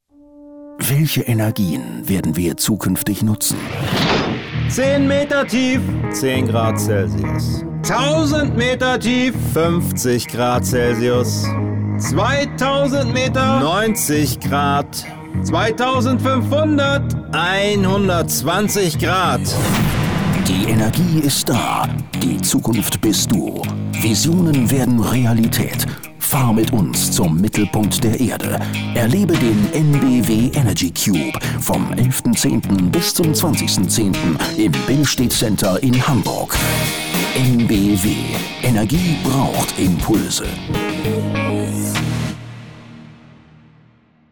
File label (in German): Funkspot; EnBW Energycube